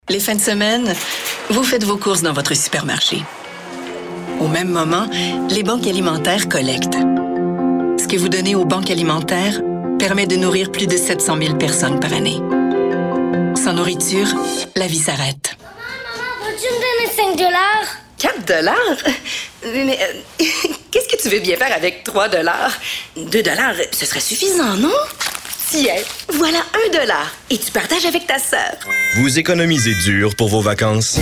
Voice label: F FR